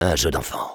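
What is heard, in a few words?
positive vocalization, French; Sniper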